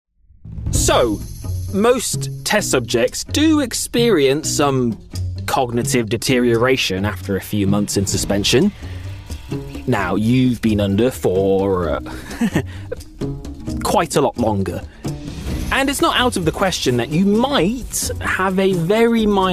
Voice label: Computer Games, regional accents

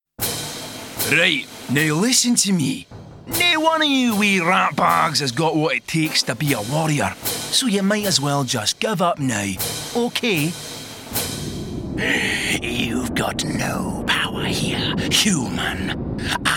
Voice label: Animation

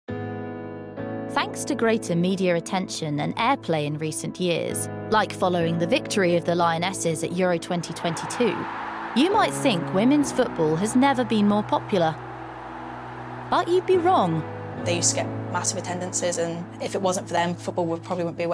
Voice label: Documentary

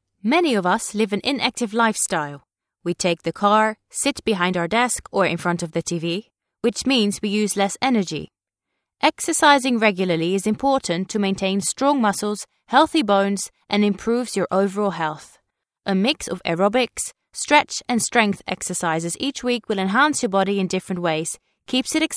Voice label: Voiceover Dutch English

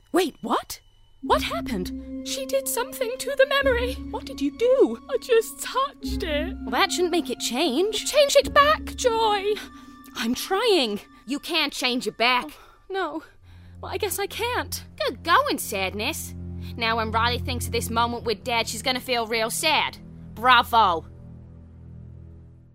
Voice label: Animation

